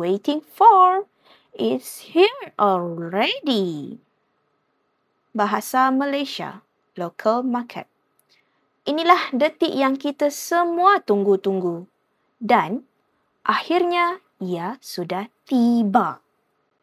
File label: accents, voice